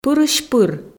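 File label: "пырысь-пыр", Komi, female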